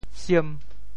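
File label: "siem5", Teochew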